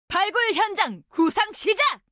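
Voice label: Korean; 探奇（守望先锋2）; 终极技能; 발굴 현장 구상 시작!; 开始构思发掘现场！; 韩语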